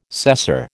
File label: "cesser", American